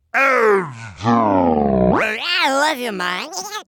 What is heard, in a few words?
battlecry; voice packs; demoman